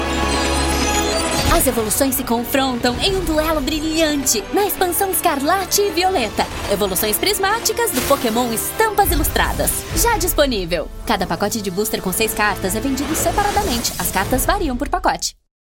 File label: portuguese brazilian promos